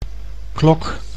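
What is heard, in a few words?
"klok", Dutch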